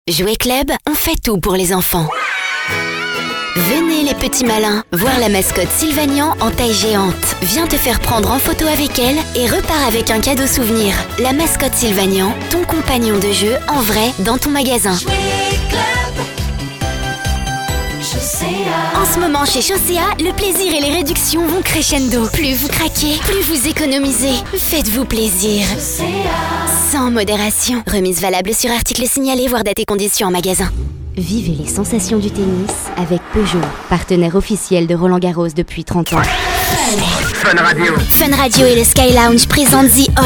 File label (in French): voix off; demo voix off; poulayot studios